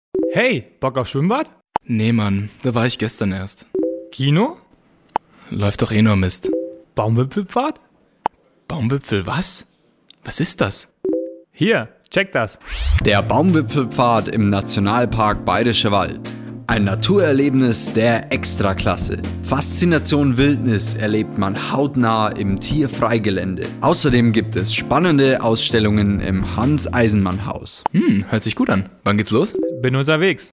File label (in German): Werbung; Erlebnis Akademie